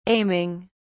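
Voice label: "aiming", British English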